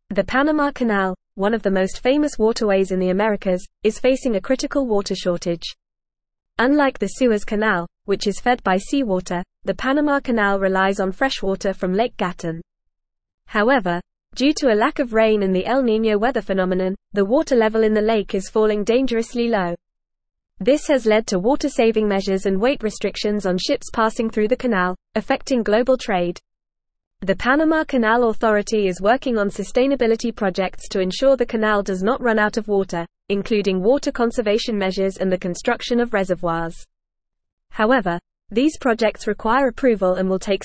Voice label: English Advanced FAST Reading; English Newsroom; Panama Canal Faces Critical Water Shortage Threatens Trade

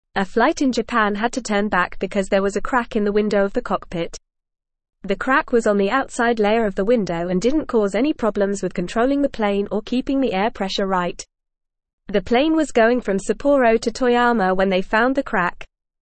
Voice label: English Upper Intermediate FAST Reading

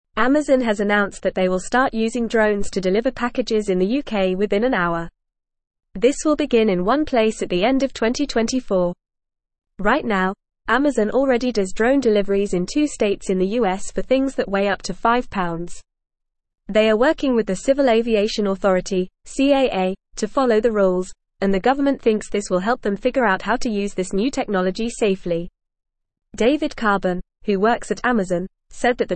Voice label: English Upper Intermediate FAST Reading; Amazon to Deliver Parcels in UK with Drones; English Newsroom

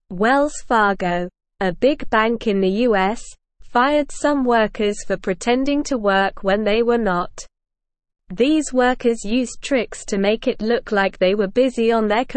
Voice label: English Lower Intermediate SLOW Reading; Wells Fargo Fires Workers for Pretending to Work

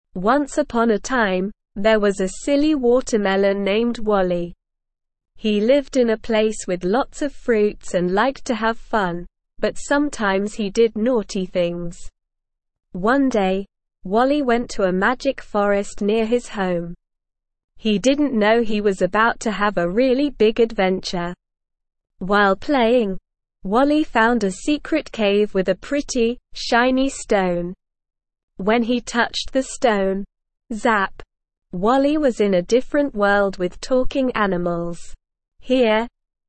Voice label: ESL Short Stories for Kids Beginner SLOW Reading; The Adventures of Wally Watermelon

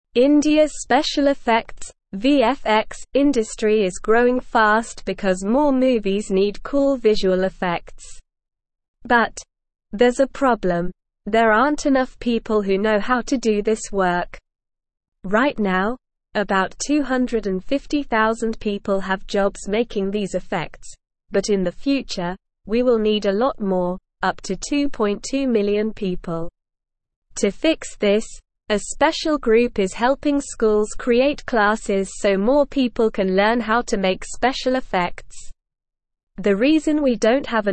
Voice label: English SLOW Reading; India Needs More People to Make Movie Magic

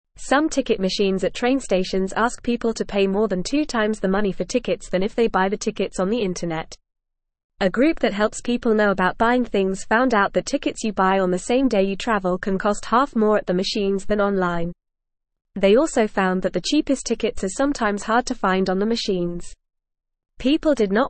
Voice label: Beginner FAST Reading, English; English Newsroom

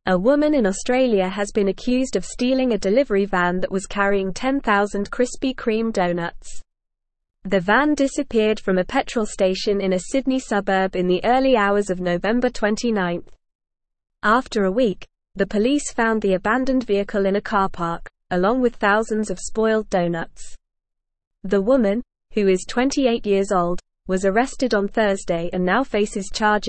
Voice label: English Newsroom Advanced NORMAL Reading; Woman Charged with Stealing Krispy Kreme Delivery Van

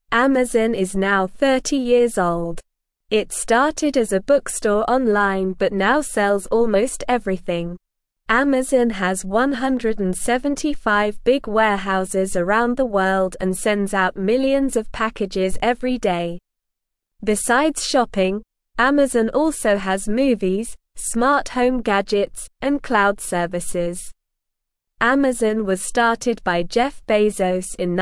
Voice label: English Lower Intermediate SLOW Reading; Amazon From Bookstore to Everything Store; English Newsroom

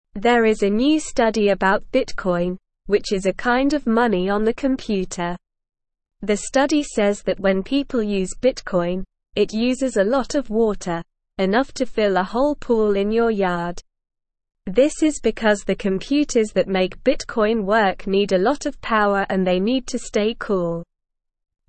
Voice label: English Newsroom Beginner SLOW Reading; Bitcoin Uses a Lot of Water Which is Bad

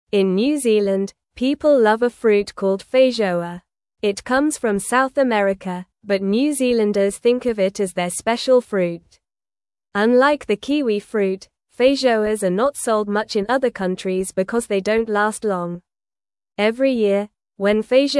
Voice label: English Newsroom Beginner NORMAL Reading; New Zealands Special Fruit The Feijoa Story

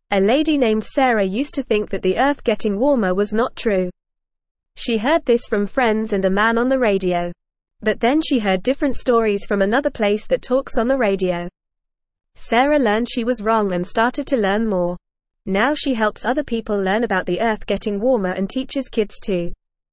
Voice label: Beginner FAST Reading, English; Sarah Learns About Global Warming and Teaches Others; English Newsroom

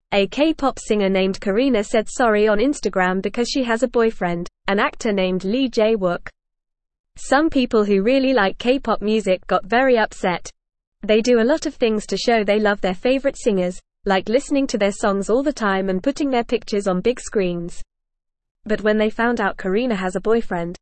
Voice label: English Beginner FAST Reading; English Newsroom

